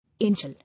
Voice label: "Angel", English